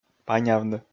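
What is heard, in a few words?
"панявны", Komi, male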